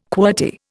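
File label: "qwerty", Indian English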